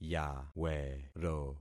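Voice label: "yawero", concatenated syllables; Affixing experiment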